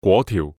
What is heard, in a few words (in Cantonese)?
"粿條" Yue